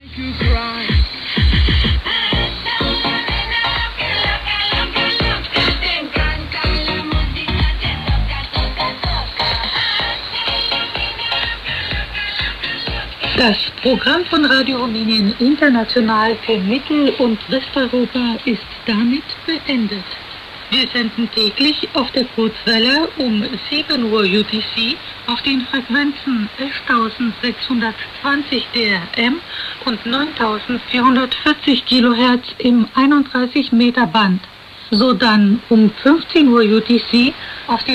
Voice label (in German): RRI KW deutsch